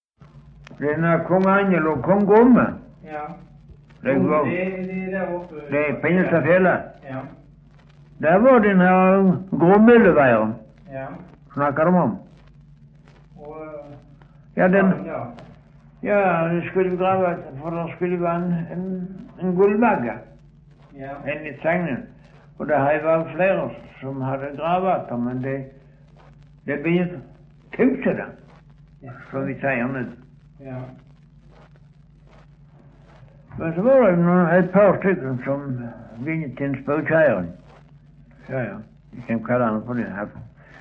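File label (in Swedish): Östra Ingelstad Skåne, dialekter; Folkminnen; Svenska sagor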